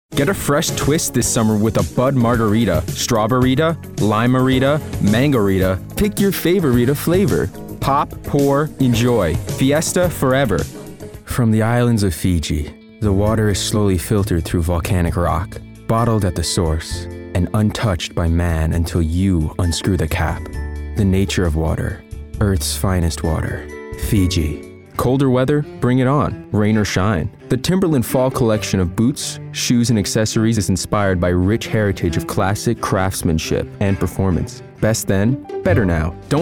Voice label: Commercial